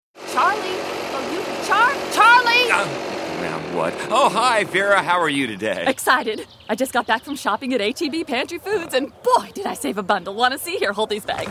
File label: English, english females